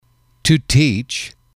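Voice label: "to teach", English, male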